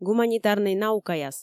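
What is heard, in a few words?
"гуманитарнӧй наукаяс", Komi, female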